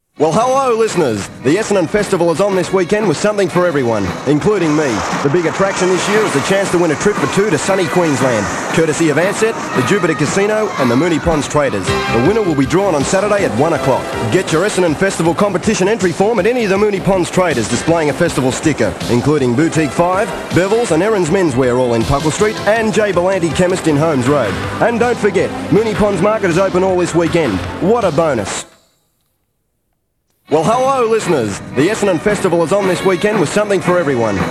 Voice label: Voice Over; Moone Ponds Traders